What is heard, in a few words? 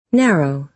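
"narrow", American English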